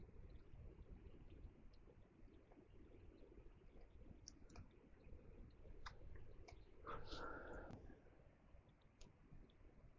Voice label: Burial Stone Return EVP